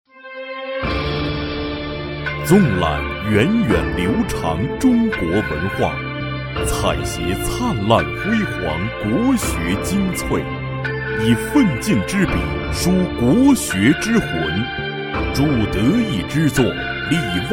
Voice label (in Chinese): 男 广告 教育电视台国学公开课